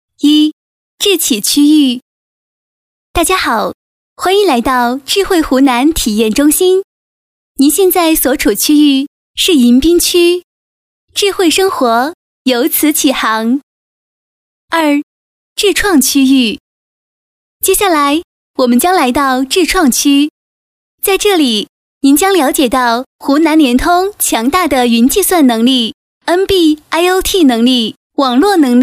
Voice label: 女 景区介绍

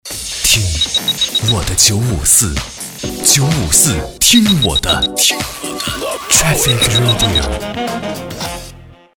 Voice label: 电台 台宣; 海口交通广播954

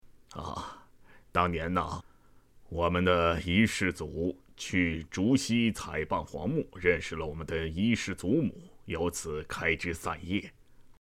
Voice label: 爷爷

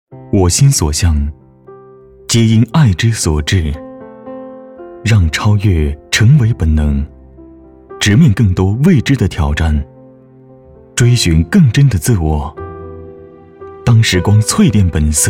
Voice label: 男 品牌广告; 周大生

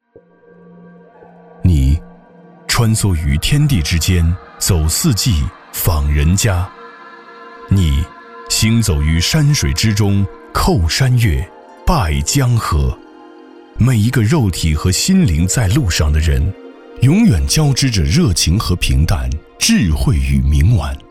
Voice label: 男 低沉 磁性 旅游宣传

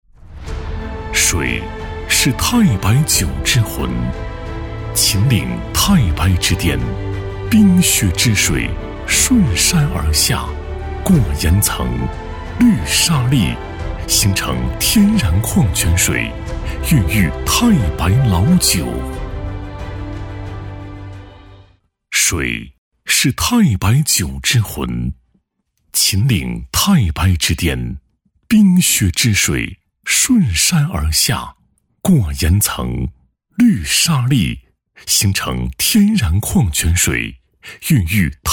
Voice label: 男 酒广告; 太白老酒

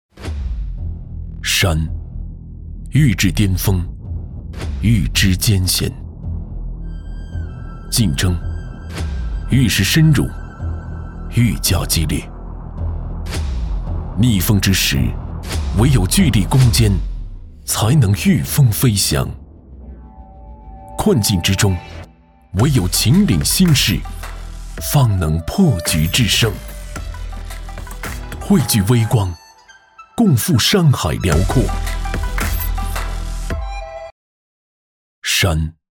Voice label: 男 宣传片 内敛力量开场

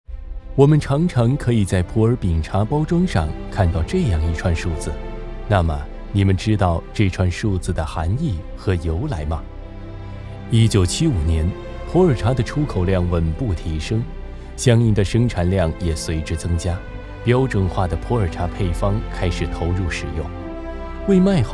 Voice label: 男 专题 茶

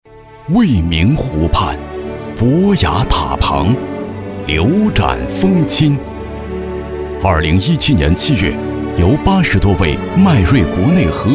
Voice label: 男 宣传片; 迈瑞

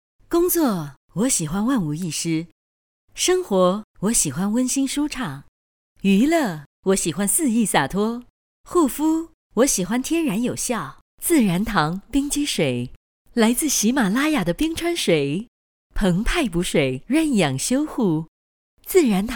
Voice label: 女 广告; 自然堂

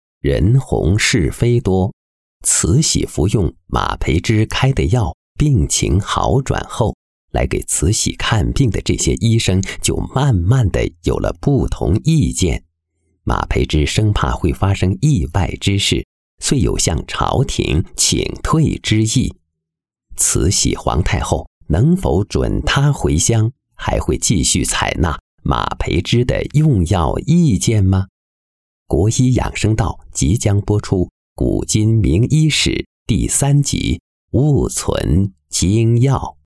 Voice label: 男-导视干声; 国医养生道

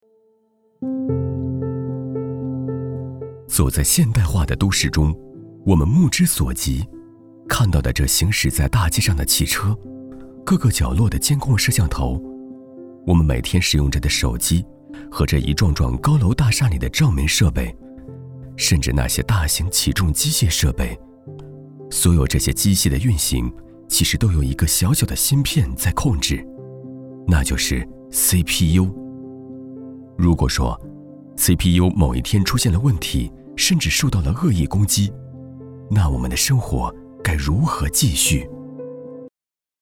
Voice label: 男 纪录片 浑厚 人物; 龙芯之父

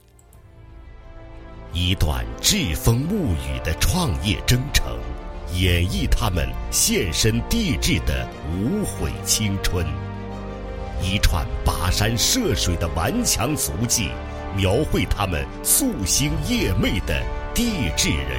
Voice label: 男-专题-地质队

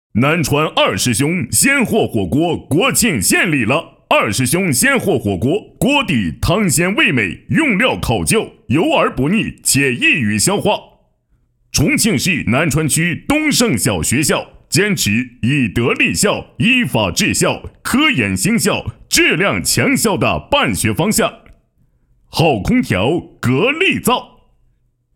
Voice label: 男 火锅 广告配音; 南川某鲜货火锅国庆献礼

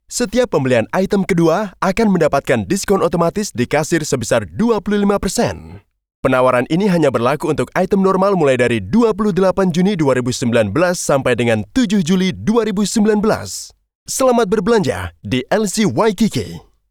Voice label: 印尼语男 打折宣传

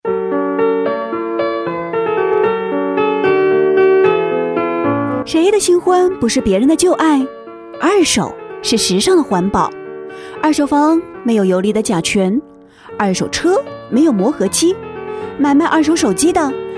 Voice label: 女 专题, 年轻; 国语女声配音; 飞碟说类型

